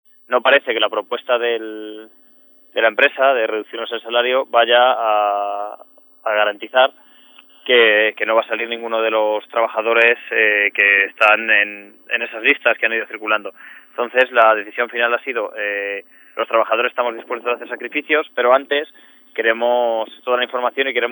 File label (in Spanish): noticias